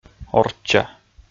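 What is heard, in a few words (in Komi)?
"орчча", Komi, male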